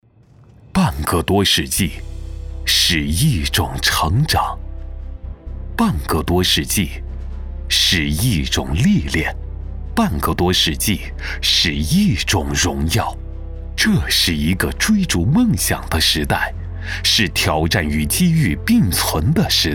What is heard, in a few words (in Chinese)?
男, 大气宣传, 企业宣传